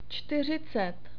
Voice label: "čtyři", Czech; numbers